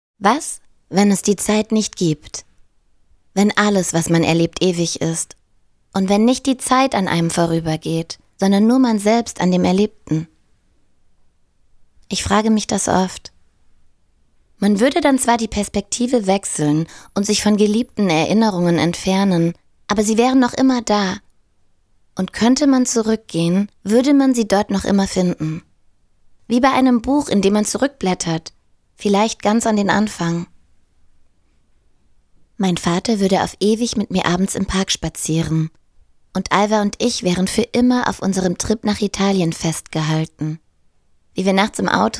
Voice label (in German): Sprecherin, Hörbuch; Demo; Wells